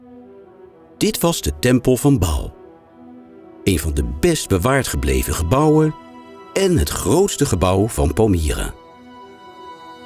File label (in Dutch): Narratief en Corporate, voice-over; Demo